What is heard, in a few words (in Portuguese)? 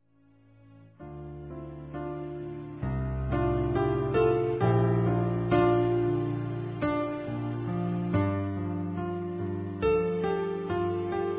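por não ter telefonado, masculino; Desculpas; Telemensagens 2017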